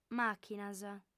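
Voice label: "màchinas", female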